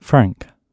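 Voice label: "frank", British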